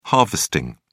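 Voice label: "harvesting", British English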